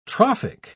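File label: "trophic", British English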